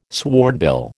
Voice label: "swordbill", American